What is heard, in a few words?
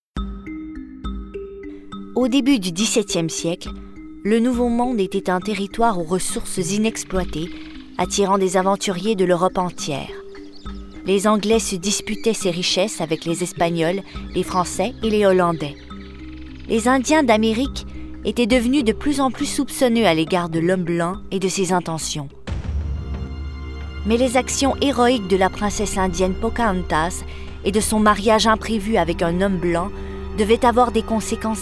narration; pocahontas